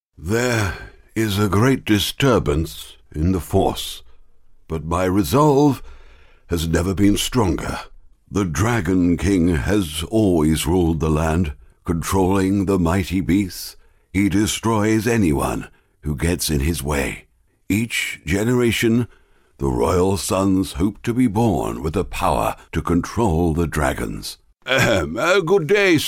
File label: wizard and butler characters